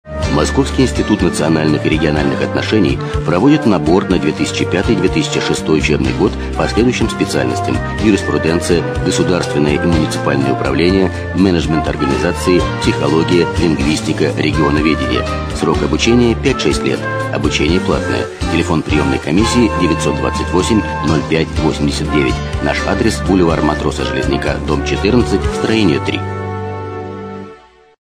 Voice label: russian male